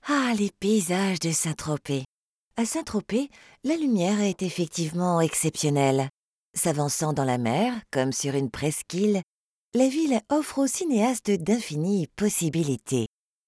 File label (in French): Audio guide musée